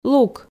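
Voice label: "лук", Komi, female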